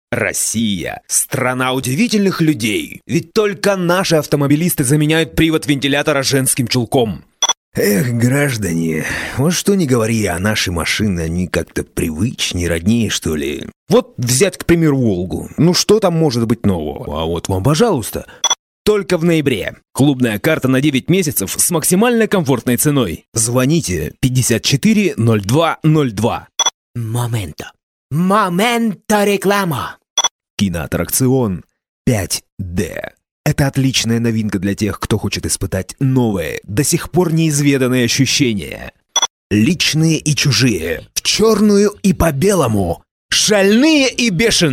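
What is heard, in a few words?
Russian characters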